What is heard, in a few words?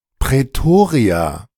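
"Pretoria", German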